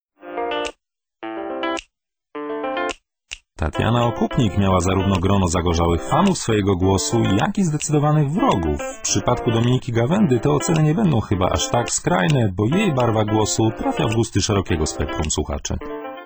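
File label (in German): stimmprobe, männer aus aller welt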